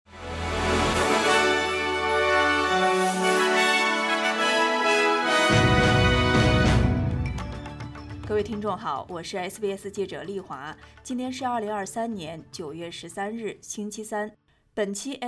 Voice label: mandarin newsflash; sbs

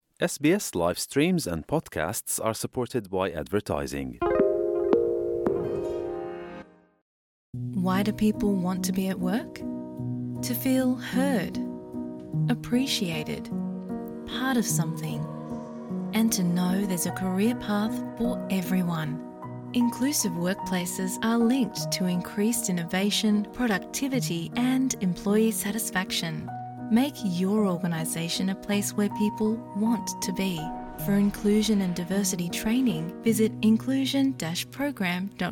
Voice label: gujarati newsflash; sbs

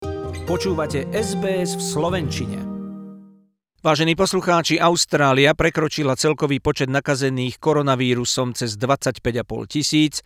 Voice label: slovak; sbs

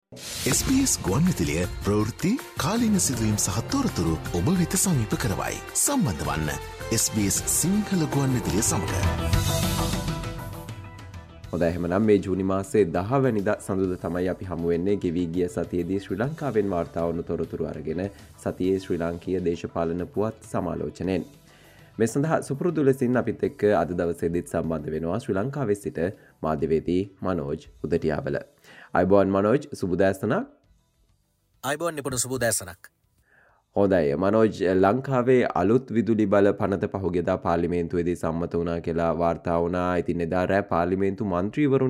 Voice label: sinhala political wrap; sbs; sl political wrap long

